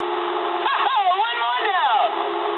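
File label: English player killed enemy mood high; speech; War Thunder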